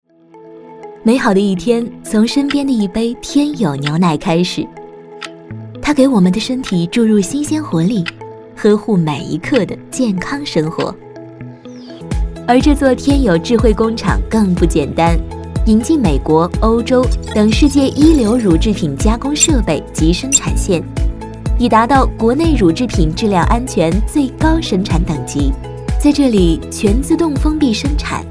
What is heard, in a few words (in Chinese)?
女 专题宣传片 优雅知性; 天友乳业企业